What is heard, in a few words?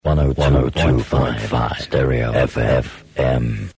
stereo fm Radio; Horizon Radio Jingles 1981 to 1985; 102.5 Stereo FM Horizon Radio